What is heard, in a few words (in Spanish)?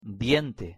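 "diente", Spanish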